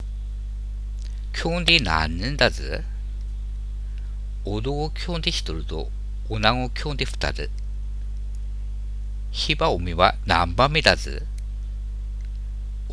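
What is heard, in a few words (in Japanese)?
ハナメとコウメ, 秋田弁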